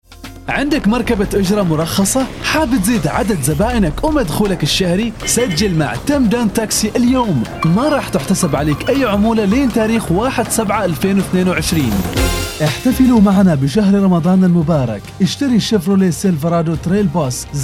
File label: Omani voiceover